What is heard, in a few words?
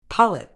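"polyp", medical English, British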